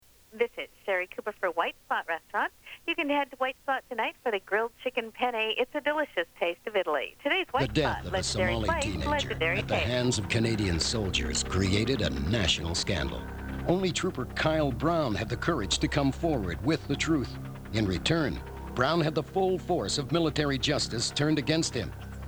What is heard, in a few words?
90's Vancouver Radio Commercial Break; Anonymous tape